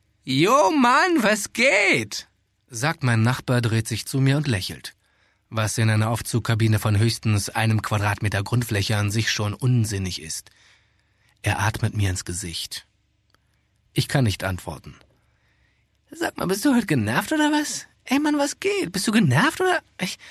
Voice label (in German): Hörbuch; Macht Rebel